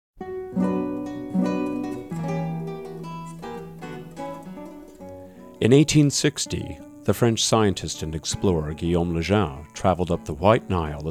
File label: narration